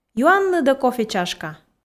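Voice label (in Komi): "юанныд-ӧ кофе чашка", Komi, female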